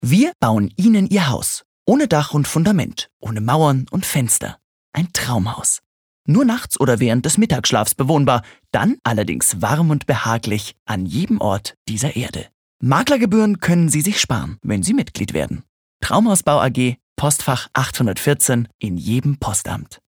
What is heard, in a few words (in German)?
Werbung, Freundlich